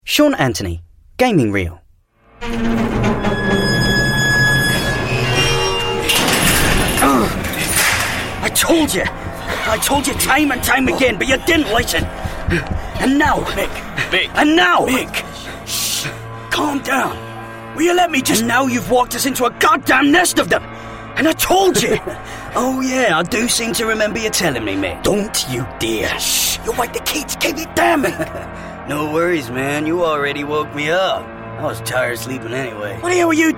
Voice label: english british video games